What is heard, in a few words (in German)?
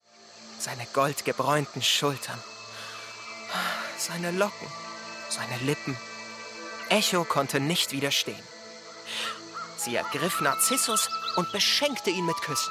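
Hörspiel; WDR; Frei wie ein Vogel; Narzissus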